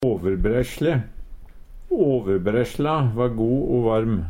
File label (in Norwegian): "åverbreisle", Numedalsmål; Substantiv inkjekjønn; Tekstilhandverk; Åverbreisla va go o varm.